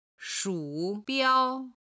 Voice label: "shu3 biao1", chinese